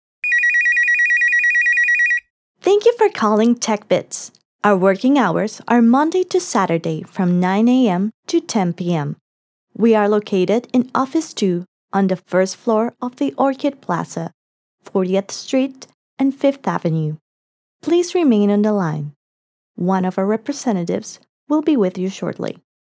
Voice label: contestadora english